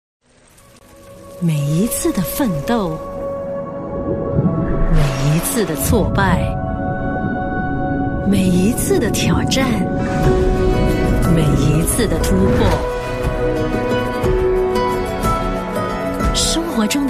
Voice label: mature; Coway

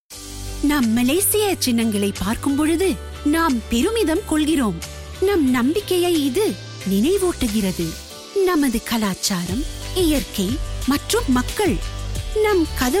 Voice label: Tamil VO; Malaysia Airlines

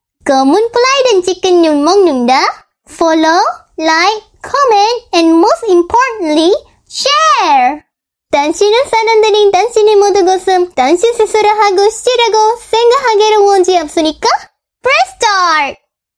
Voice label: chicken brand commercial in korea

